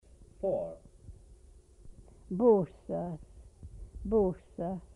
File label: "four", Twana